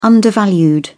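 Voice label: "undervalued", British English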